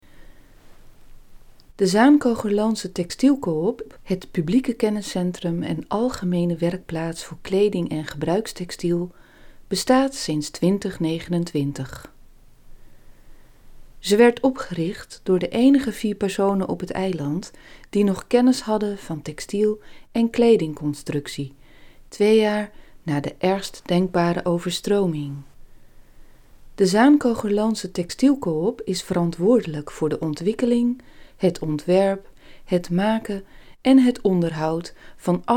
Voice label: voiceover NL; Zaankogerland